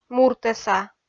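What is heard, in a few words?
"муртӧса", Komi, female; kpv audio dict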